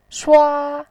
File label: "shua1", voice